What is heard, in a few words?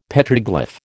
"Petroglyph", American